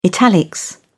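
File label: "italics", British English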